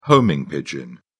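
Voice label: "homing pigeon", British English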